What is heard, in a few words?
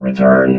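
"return", robot voice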